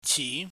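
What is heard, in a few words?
"chi", Cantonese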